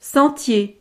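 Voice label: "sentier", French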